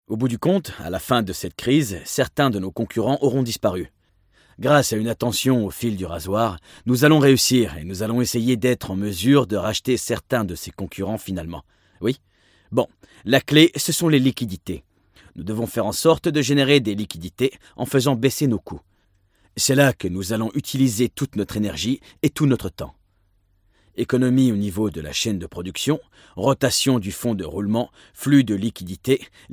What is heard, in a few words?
male french economie comercial; ideasonora